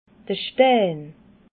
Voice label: "stein", alsace

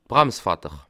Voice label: "brams", alsace

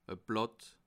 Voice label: "blatt", alsace

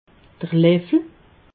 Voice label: "leffel", alsace

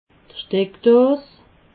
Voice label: "steckdos", alsace

